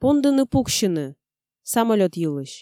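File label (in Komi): пондыны пуксьыны (самолёт йылысь), Komi, female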